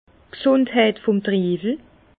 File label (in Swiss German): "gsundheit", Bas Rhin; vin; état sanitaire du raisin